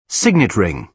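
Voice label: "signet ring", British English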